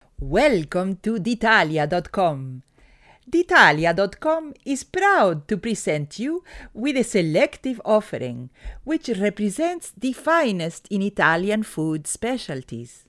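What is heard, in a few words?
Italian Accent, foreign accent voice overs; foreign languages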